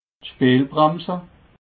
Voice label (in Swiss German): "bremse", alsace